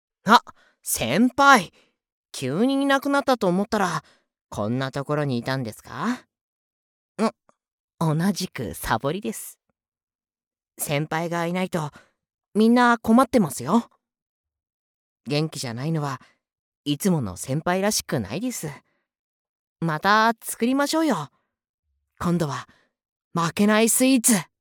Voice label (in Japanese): 学園系後輩キャラ